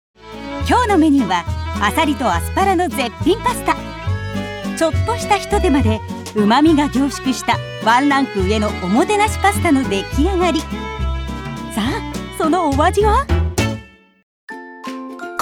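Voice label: TV, TV Program Narration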